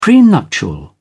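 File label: "prenuptial", British English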